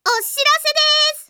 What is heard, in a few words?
message, Anime Girls; Windows; Bing Japan; Nana